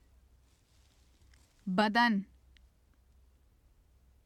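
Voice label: "badan", Urdu; بدن; [bəd̪ən] (badan); 体（男）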